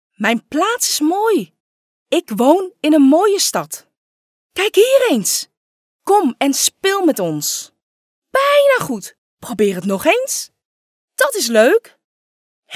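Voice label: Dutch female children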